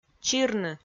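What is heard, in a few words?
"чирны", Komi, female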